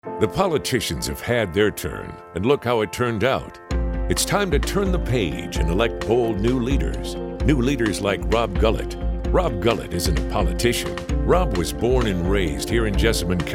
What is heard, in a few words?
PolRadio; RPK HD39 Gullette Bold